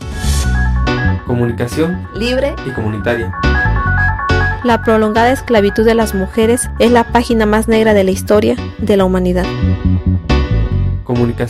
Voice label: promo; esclavitud de las mujeres